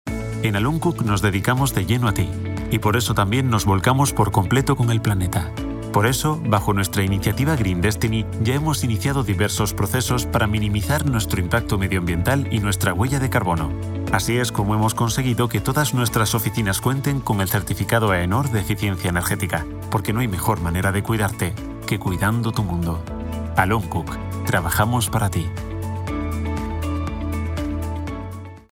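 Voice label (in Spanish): Corporativo, locución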